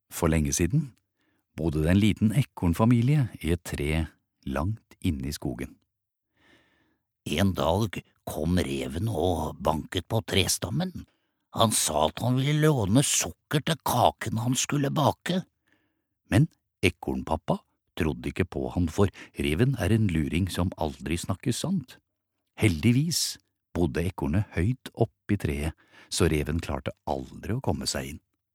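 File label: Norwegian childrens book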